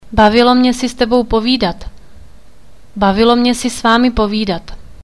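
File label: I Enjoyed Talking To You, Czech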